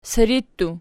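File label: siri plural, Archi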